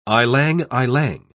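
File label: "ylang-ylang", British English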